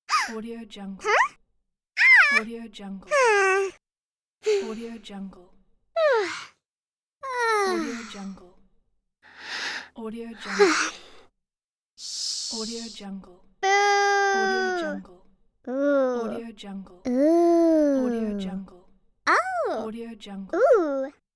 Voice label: cartoon character voice